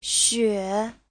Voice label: "xue3", Chinese